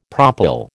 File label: "propyl", American